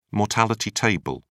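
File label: "mortality table", British English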